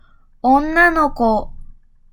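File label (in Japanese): "女の子", Japanese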